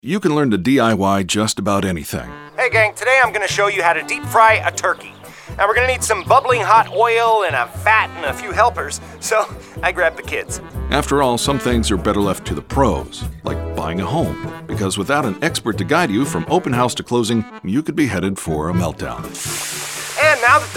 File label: radio ad; consumer advertising campaign; turkey fry